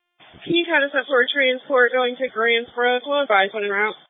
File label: EMS Dispatch